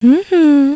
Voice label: woman procoke